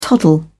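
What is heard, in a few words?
"toddle", British English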